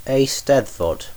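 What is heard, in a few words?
"eisteddfod", British English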